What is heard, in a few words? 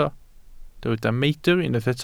"meidr", Welsh